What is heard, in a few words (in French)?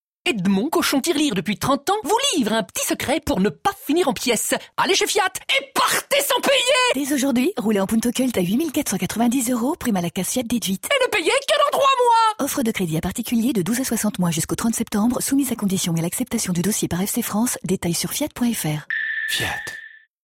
radio spot